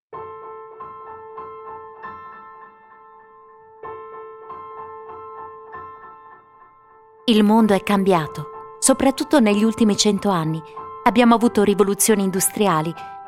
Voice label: italian podcasts